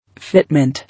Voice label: "Fitment", English